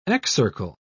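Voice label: "excircle", British English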